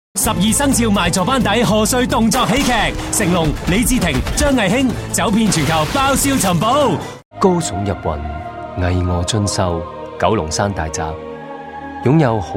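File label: Cantonese, TV commercial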